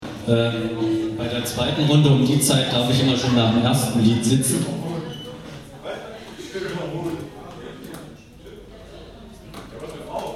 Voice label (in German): Ansage